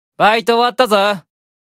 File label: Job Notification Voice; Mammon